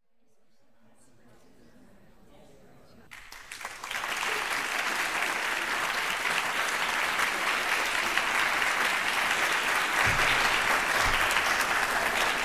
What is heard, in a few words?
reading